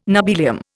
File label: "nobelium", Indian